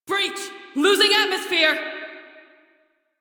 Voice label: Crew See Breach Female en; Railjack Crew; Cephalon Suda

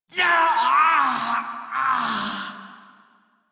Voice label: Death, Male; Dialog; Warframe; Railjack Crew; Red Veil

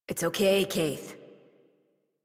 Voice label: Horse Calm Adult; Warframe; Duviri

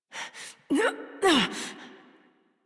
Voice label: Horse Dismount Adult; Warframe; Duviri

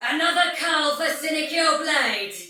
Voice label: See Player Shout Soldier Female, Battle; Dialog; Sinecure

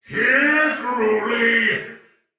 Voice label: Sight lost shout, male soldier; Dialog; Barks; Battle; Sinecure

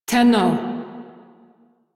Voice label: Crew Greet, Female; Dialog; en; Warframe; Railjack Crew; Steel Meridian

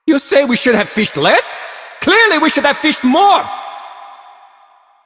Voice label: Male; Warframe; Cetus Invasion; Villagers; en

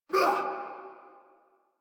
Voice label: Pain; Warframe; Eidolon Skiff Grineer

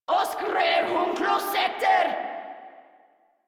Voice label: Patrol Grineer Female; Warframe

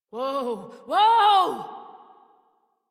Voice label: Horse Stop Adult; Warframe; Duviri

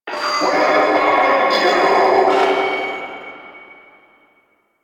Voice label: Vocal Quest Loudspeaker, Town Escape; Quests; Duviri; Ambience

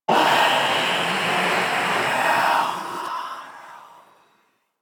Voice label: Whisper Death; Enemies; Stalker Acolyte

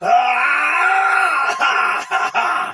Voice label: Battle Cry Soldier Sword Agent Male; Sinecure

